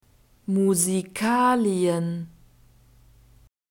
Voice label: "musikalien", german